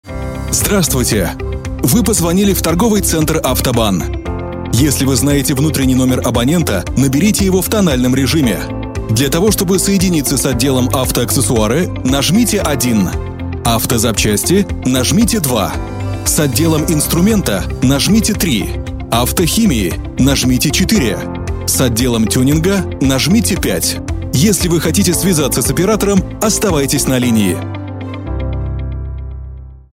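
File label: Russian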